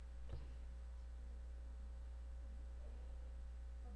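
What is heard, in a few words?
"pump", spoken word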